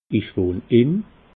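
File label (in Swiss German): "ich wohn in", Alsatian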